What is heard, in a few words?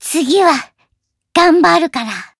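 Voice win Japanese; white nopou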